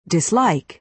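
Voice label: "dislike", American English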